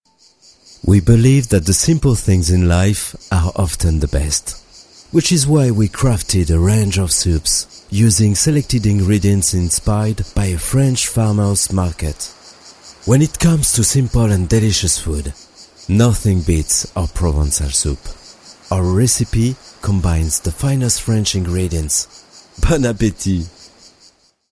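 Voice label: French English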